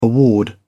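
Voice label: "award", British English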